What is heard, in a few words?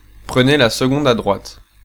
2nd Right, French, male